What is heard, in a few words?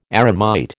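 "eremite", American English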